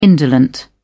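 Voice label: "indolent", British English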